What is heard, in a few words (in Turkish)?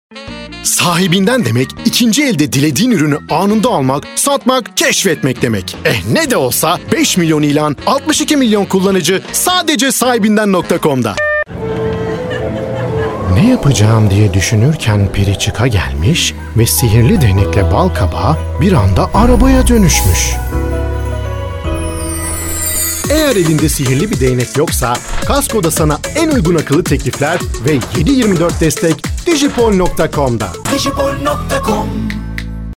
reklam